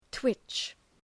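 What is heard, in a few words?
"twitch", English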